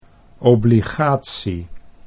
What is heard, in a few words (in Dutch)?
"obligatie", Dutch